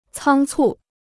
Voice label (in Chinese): "仓促", Chinese